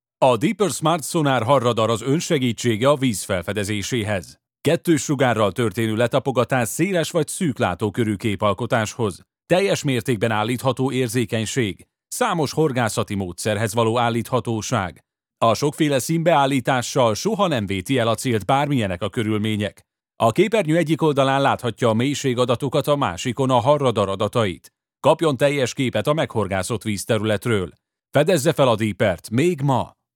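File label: Hungarian narration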